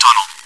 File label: chitchat, bot, voice commands; svencoop